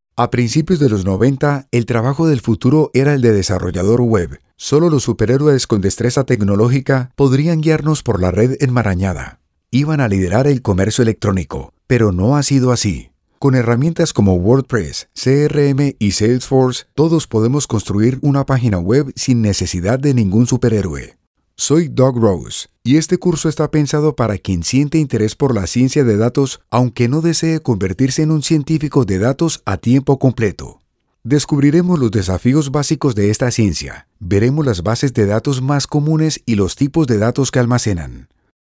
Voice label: e-learning